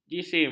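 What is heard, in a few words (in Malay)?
"jisim", Malay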